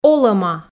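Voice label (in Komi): "олӧма", Komi, female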